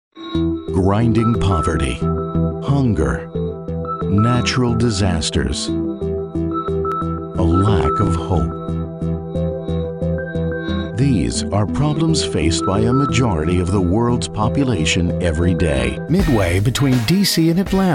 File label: Narration